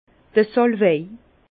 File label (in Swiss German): "salwei", Bas Rhin; vin; sauge